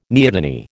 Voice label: "neoteny", American

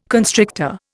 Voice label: "constrictor", Indian

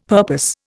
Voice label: "purpose", Indian; Dictionary

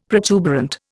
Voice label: "protuberant", Indian; Dictionary

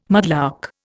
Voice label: "mudlark", Indian

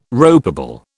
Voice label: "ropeable", British

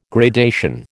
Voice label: "gradation", American

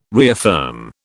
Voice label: "reaffirm", British

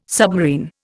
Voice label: "submarine", Indian

